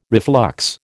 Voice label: "reflux", American

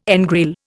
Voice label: "engrail", Indian

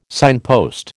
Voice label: "signpost", American

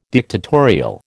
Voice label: "dictatorial", American

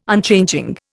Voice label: "Unchanging", Indian

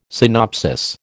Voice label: "synopsis", American

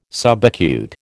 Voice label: "subacute", American

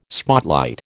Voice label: "spotlight", American

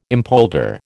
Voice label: "impolder", American

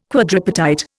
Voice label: "quadripartite", Indian

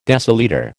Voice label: "decilitre", American

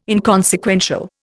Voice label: "inconsequential", Indian

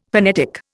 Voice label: "phonetic", Indian; Dictionary

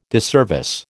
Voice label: "disservice", American

